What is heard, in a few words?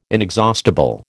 "inexhaustible", American